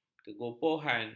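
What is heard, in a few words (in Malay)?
"kegopohan", Malay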